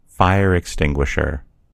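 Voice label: "fire extinguisher", American English; gcompris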